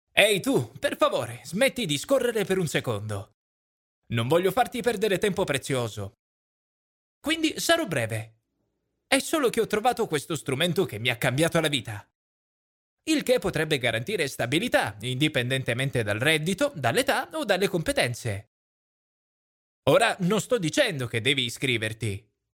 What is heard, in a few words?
Italian Male, Kurumsal, Reklam, Eğitim; Erkek; İtalyanca